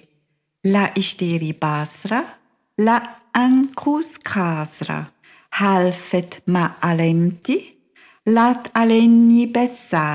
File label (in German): Arabisch Syrien, kinderverse